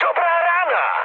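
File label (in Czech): Czech player killed enemy, mood medium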